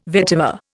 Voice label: "vetiver", Indian